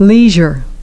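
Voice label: "leisure", English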